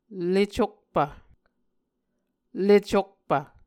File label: "le cokpv", Muscogee